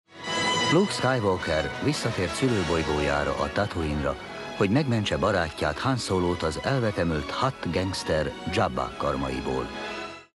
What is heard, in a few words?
narrator; rotj; szinkron